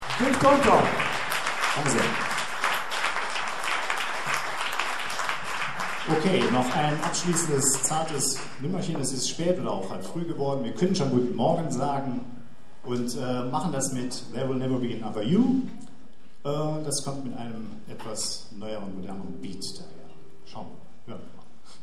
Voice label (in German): Horn & String - Ansage; Jazz-Club Trier e.V. (JCT); 15. Jazzgipfel (Tufa, Trier)